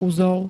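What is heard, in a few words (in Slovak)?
"uzol", Slovak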